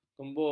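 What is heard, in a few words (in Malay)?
"kembur", Malay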